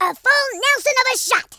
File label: "excellent", American Wrestler